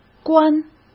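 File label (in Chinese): "关", Mandarin Chinese; hsk; chinese-flashcards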